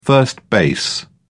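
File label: "first base", British English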